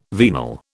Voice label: "venal", British